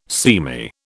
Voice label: "seamy", British